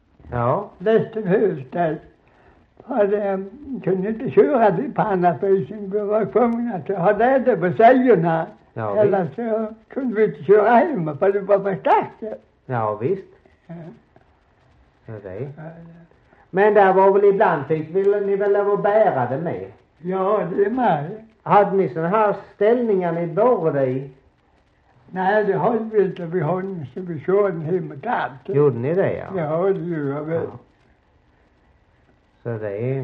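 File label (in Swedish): Slättåkra Halland, dialekter svenska sagor; Folkminnen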